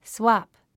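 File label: "swap", English word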